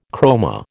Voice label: "chroma", American